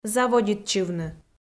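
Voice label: "заводитчывны", Komi, female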